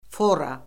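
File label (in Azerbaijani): "fora", Azerbaijani; Orfoqrafiya Lüğəti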